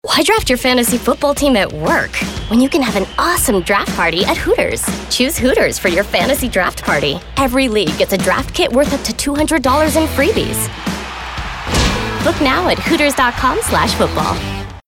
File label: Edgy